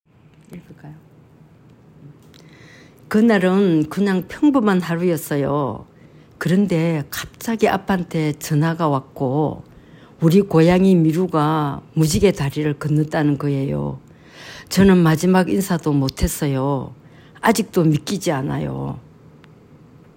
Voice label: Old Female Sad, korean voice emotion